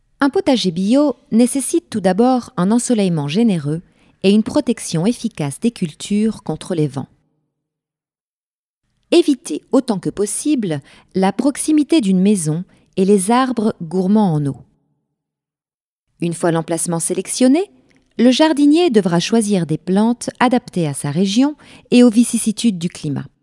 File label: female french elearn comercial